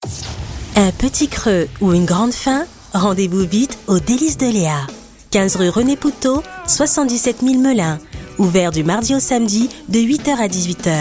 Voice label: Spot publicitaire radio Posé souriant; Les délices de Léa

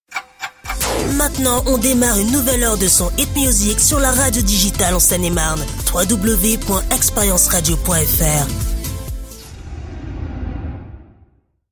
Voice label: Top horaire voix masculine Ton convaincu informatif affirmatif; EXPERIENCE RADIO

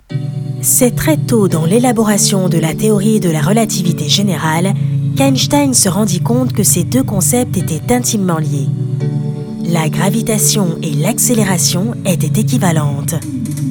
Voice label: Narration documentaire, VOIX OFF; LE PRINCIPE D EQUIVALENCE

